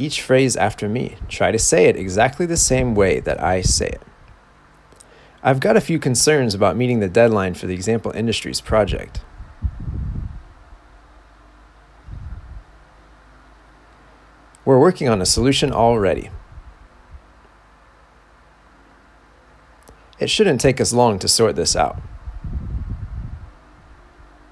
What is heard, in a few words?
Phone Shadowing